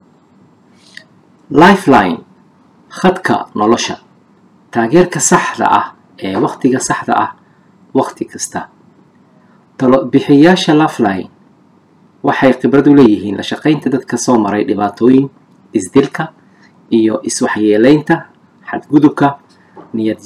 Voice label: Somali recording